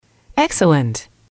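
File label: "excellent", english, female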